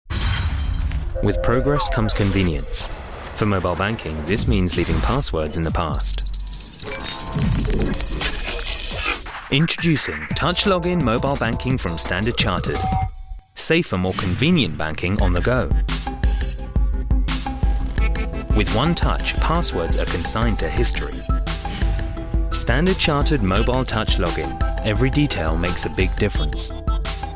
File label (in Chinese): 英語男聲; Standard Chartered Mobile Touch Login